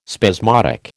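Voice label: "spasmodic", American English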